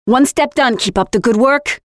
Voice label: Win round, Voiceovers; Project Argo